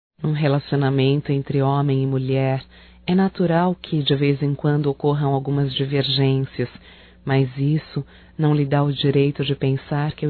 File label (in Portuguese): ROMANTICA(F), Românticas, fem